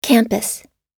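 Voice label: "campus", American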